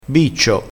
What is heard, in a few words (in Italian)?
"biccio", vocabolario dialettale